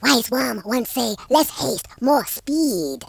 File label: boring, speech; Confucious